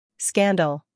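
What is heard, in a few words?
"scandal", American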